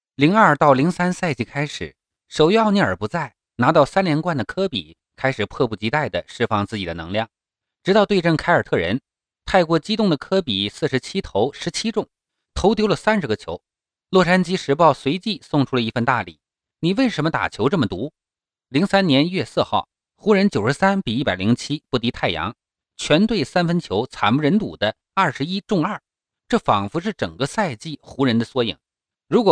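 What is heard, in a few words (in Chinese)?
篮球解说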